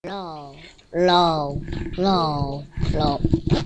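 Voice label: "low", spoken word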